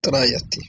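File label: "trajati", Bosnian-Croatian-Montenegrin-Serbian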